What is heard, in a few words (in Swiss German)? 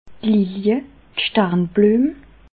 "lilje", alsace